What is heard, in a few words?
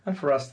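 "fRus", Standard Southern British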